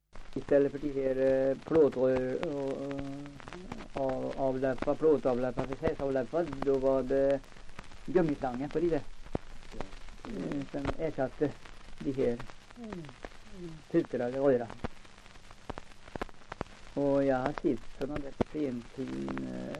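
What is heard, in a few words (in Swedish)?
Ö. Tollstad Östergötland, dialekter, Svenska sagor; Folkminnen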